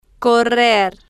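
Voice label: "correr", slow, spanish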